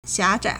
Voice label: "xia4zhai3", Chinese